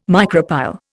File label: "micropyle", Indian